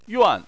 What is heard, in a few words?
"yuan4", Chinese